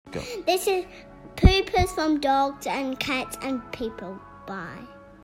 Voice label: voice message